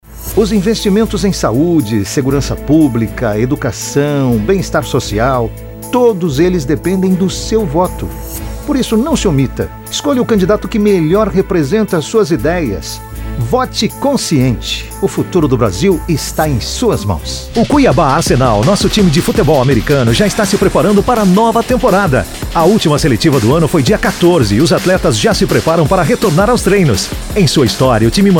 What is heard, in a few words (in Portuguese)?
Animada